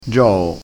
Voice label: "gioo", crespinese

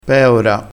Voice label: "peora", crespinese

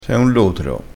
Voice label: "lotro", crespinese

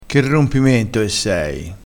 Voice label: "rompimento", crespinese

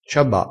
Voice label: "ciaba", crespinese

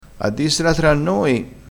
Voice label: "a dissela tra noi", Crespinese

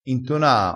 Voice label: "intonaa", crespinese

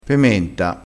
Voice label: "pementa", crespinese

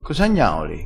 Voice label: "gnaola", crespinese

